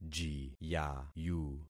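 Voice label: "jiyayu", concatenated syllables; Affixing experiment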